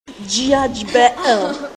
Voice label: no understand, klingon

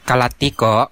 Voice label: do you understand, kadazan

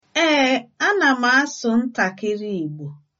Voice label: yes a little, igbo

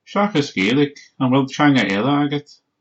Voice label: "other language", Irish

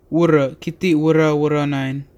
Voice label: yes a little, serrano

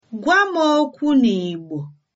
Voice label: speak to me in, igbo

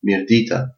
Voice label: hello, albanian gheg; Mir-dita; (good day)

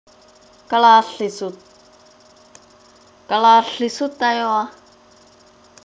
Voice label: alphabet, greenlandic

